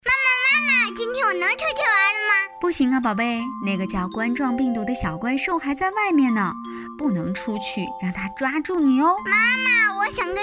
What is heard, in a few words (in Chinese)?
不出门公益广告童声